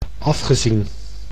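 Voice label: "afgezien", Dutch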